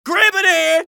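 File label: scout taunt flip fun, male voice; tf2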